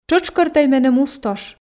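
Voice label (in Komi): "тшӧтшкӧртӧй меным ус-тош", Komi, female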